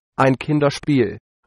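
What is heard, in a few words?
easy, German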